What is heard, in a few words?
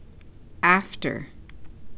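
"after", Canadian, female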